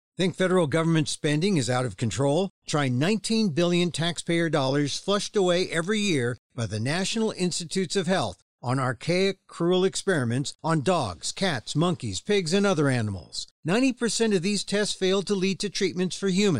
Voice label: radio ad; nih waste

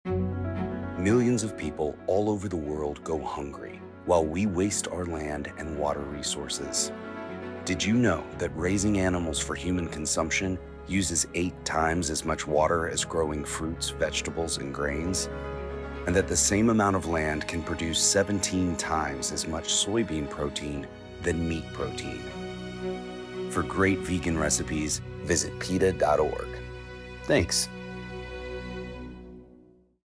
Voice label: environment radio psa

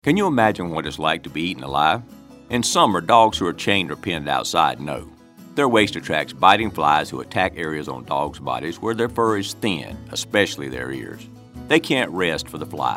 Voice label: radio psa country; flystrike; peta

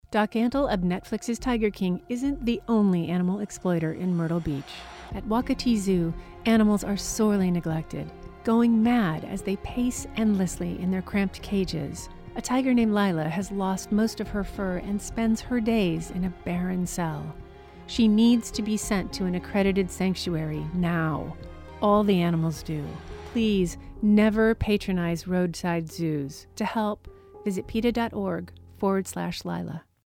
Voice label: radio psa; tiger king; peta

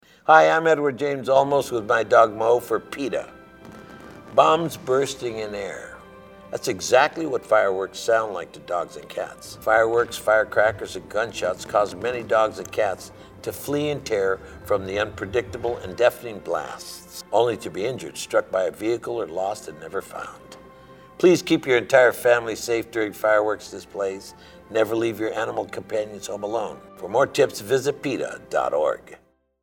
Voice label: english psa; edward james olmos; fireworks; peta latino

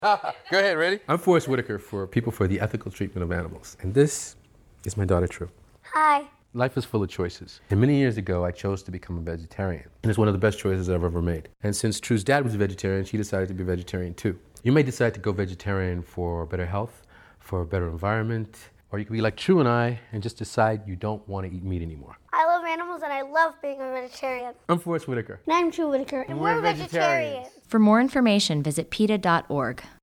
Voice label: radio; forest whitaker

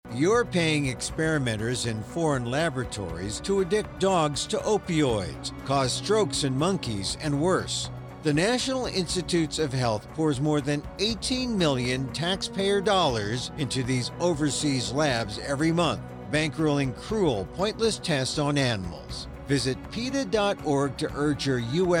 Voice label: cargo act radio ad

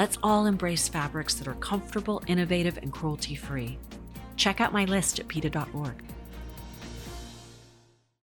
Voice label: wool radio spot; alicia silverstone; peta

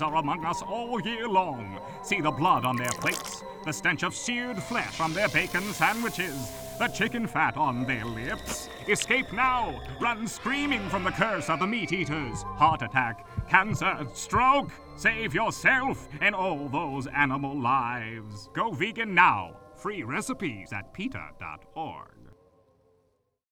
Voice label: Halloween Radio PSA

